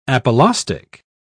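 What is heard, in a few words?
"apolaustic", British English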